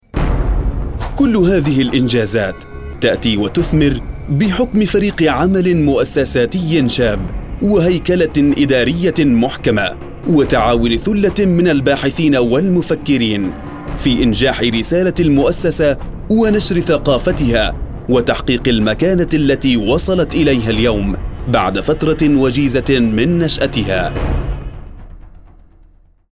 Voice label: arabic documentaries